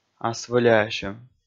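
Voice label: "асвӧляасьӧм", Komi, male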